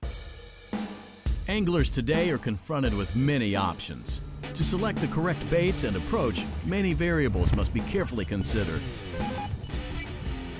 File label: Narration, Voice Over